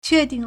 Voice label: "que4 ding4", Chinese

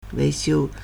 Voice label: "wei2xiu1", Chinese

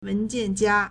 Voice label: "wen2jian4jia1", Chinese

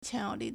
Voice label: "qiang2 you2 li4", Chinese

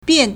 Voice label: "bian4", Chinese

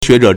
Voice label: "xue2zhe3", Chinese

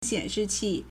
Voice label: "xian3shi4qi4", Chinese

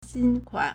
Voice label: "xin1kuan3", Chinese

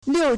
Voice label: "liu4", Chinese

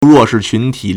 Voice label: "ruo4 shi2 qun2 ti3", Chinese

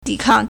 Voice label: "di3 kang4", Chinese

